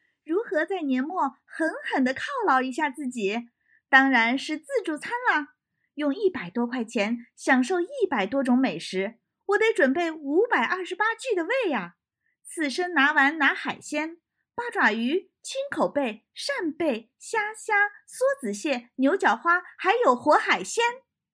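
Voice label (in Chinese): 探店独白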